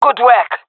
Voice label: compliment, fem; Counter Strike Model Pack; CS:GO; jungle fem epic